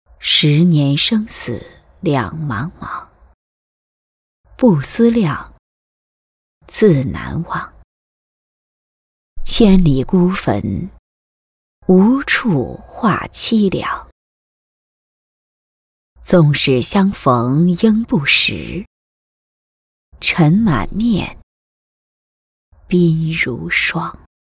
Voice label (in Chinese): 朗诵 诗词朗诵, 女国